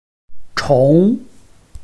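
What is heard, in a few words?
"chong", Chinese, tone 2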